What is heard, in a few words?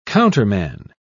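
"counterman", British English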